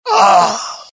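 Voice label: death, dialogue generic Italian; mohaa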